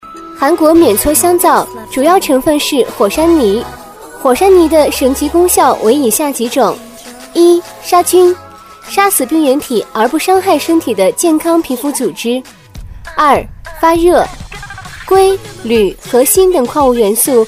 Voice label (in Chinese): 【促销】韩国